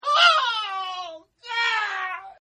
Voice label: Scream "Oh God" Male; Screaming; Voice

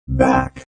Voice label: Robot Voice "Back"

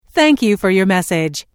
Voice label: Telephone prompt: Thank you for your message; Pre-recorded telephone on-hold message.; Recorded Voice Sound Effects

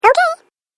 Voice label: Cartoon Game Voice "Ok"

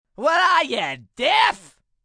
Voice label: What Ya Deaf, Attitude, male, raspy; 'What are ya deaf'; (human voice speaking); Talking

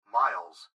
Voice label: Gps Voice, Male; Clip Speaker Adult Male; Recorded Voice; Blastwave; Technology

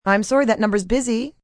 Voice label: Operator Busy, Telephone operator, female; VoiceBox

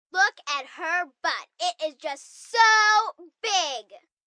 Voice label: Her Butt Is So Big, Attitude, 1 girl, 11 yrs

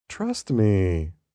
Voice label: Trust Me, male

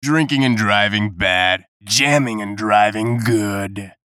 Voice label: VOICE COMICAL; Drinking And Driving; Radio Voice; Production Element, Imaging Element, Accent, Transition; Blastwave

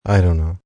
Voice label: I Dont Know, male; Response; Talking; VoiceBox

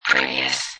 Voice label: Robot Voice "Previous"; Lynne Music

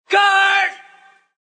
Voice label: "Guard", Male; Talking

